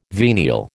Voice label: "venial", American